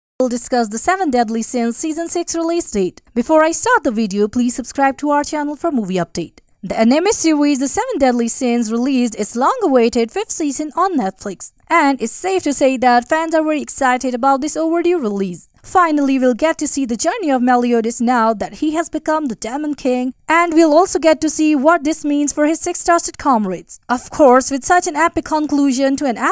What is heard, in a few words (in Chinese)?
乌尔都语女 外语 小语种 年轻